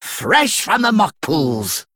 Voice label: Male Dredger Recruit Small Minion

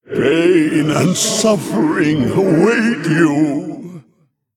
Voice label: Male

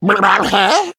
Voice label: Male Beast Emote Greetings

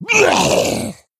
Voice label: Male Gnoll Attack

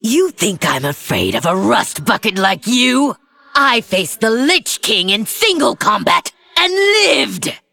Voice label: Female Forsaken; Rokara

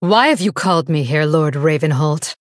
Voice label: Female Blood Elf Intro; Valeera

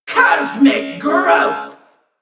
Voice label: Nonbinary Mech Attack

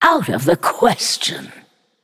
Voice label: Female Naga error generic

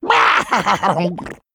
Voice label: Male Murloc; Cookie; Scabbs; Hero Power

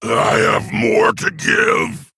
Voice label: Male Fel Orc Death

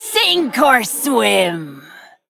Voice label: Female Undead Attack

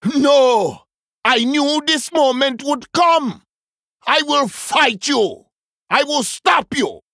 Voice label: Male Troll Introduction

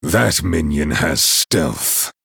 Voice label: Male Night Elf; ERROR STEALTH

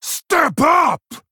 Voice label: Male Fel Orc Play

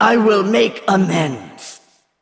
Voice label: Female Val'kyr Sorry

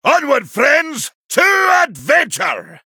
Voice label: Male Dwarf Play

